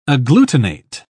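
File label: "agglutinate", British English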